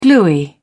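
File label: "gluey", English, British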